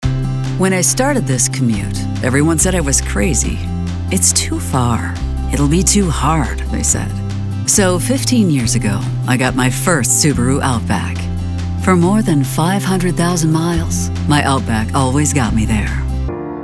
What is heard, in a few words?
Commercial